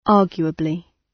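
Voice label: "arguably", British English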